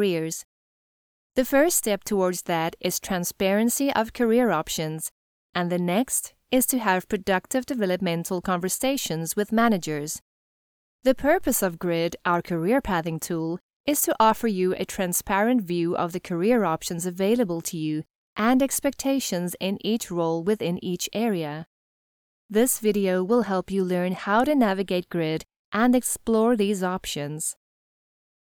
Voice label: Training module US

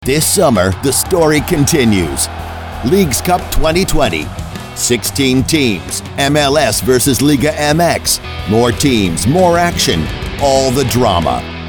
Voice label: SOCCER PROMO

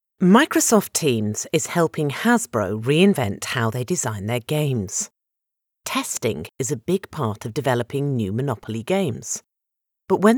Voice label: Corporate Reel

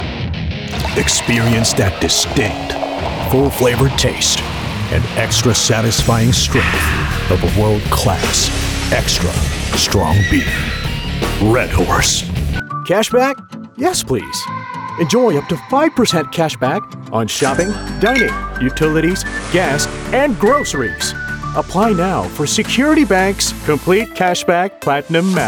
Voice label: Commercial, voice

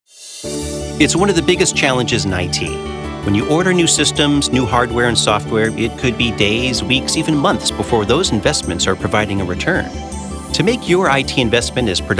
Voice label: Corporate Narration

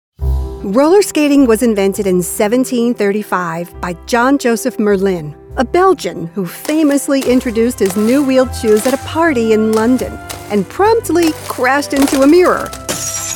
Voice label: eLearning Kids K-12

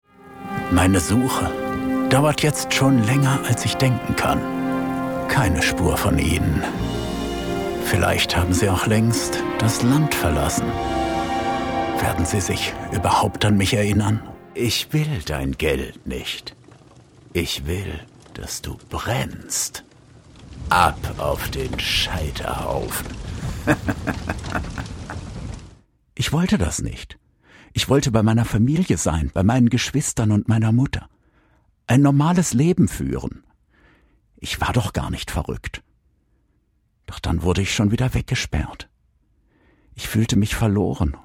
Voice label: Character Reel German

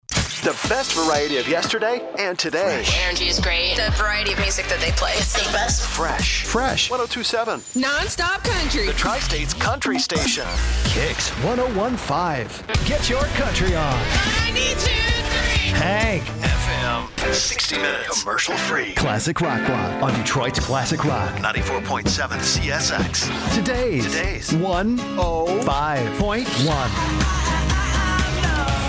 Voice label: Imaging

